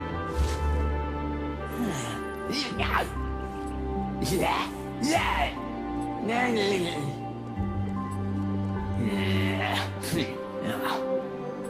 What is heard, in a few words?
voiceover; Ori and the Blind Forest; gamesoundopedia